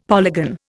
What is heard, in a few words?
"polygon", Indian; Dictionary